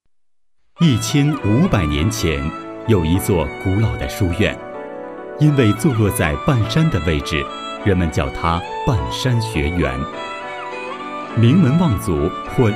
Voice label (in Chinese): 男89, 国语男声配音; 半山学园成品